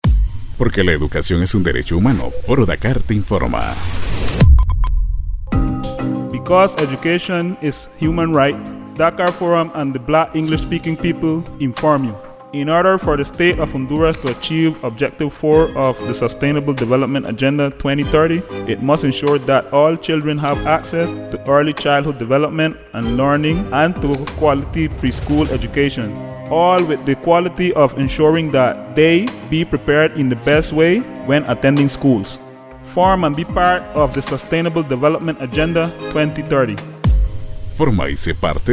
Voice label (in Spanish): Spot en Ingles; Foro Dakar